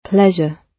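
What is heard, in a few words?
"pleasure", British English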